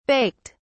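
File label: "baked", British English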